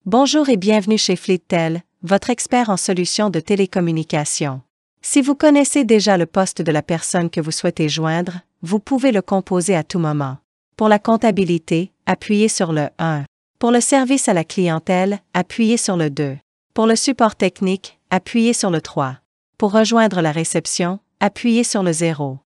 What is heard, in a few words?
NATURAL2 VOICE